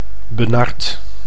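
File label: "benard", Dutch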